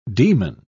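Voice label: "daemon", British English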